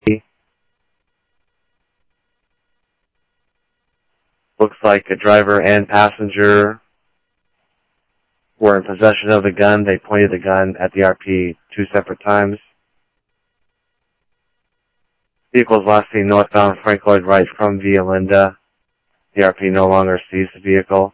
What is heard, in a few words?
Police, scanner audio